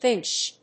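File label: "finch", English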